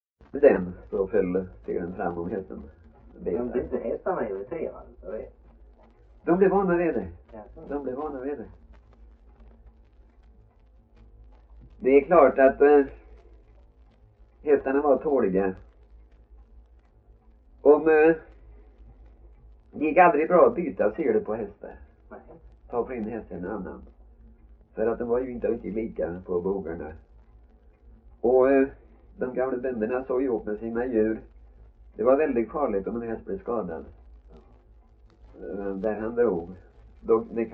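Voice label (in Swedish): Värö Halland, dialekter svenska sagor; Folkminnen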